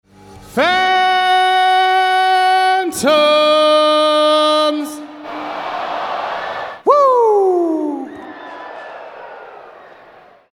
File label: Goal Read WOOO